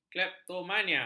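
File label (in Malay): "kleptomania", Malay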